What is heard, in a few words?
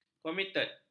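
"komited", Malay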